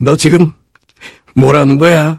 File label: negative vocalization